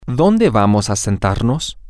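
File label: where are we goiing to sit, Spanish, female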